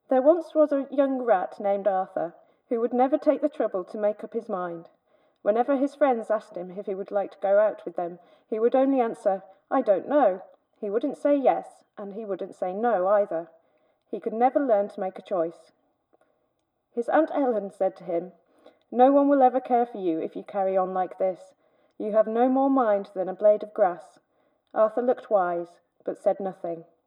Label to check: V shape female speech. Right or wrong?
Right